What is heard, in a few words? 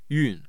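"yun4", Mandarin Chinese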